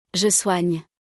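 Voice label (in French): "je soigne", French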